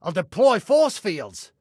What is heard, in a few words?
Self Task Deploy Forcefields, Male; Male 02